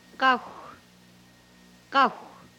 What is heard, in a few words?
yew wood, hupa